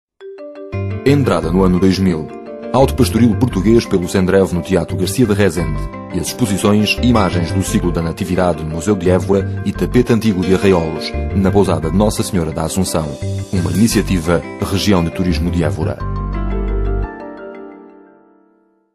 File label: spot